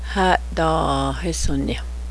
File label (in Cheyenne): lizards, Cheyenne